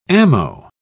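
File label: "ammo", English, British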